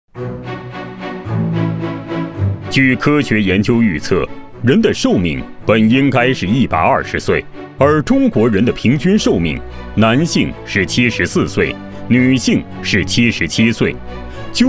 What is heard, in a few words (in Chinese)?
男 专题 快节奏解说风格 量子医疗专题